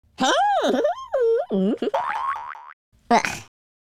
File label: taunt, German; speech